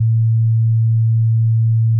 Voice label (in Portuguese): F0 homens